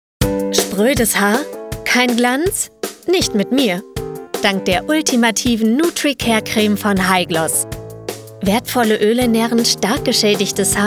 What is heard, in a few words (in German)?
Werbung High Gloss